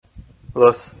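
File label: "лӧс", Komi, male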